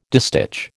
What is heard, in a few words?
"distich", American